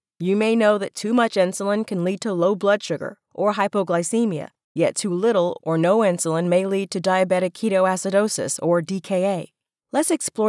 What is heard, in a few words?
English Medical narration